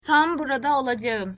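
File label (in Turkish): "tam burada olacağım", Turkish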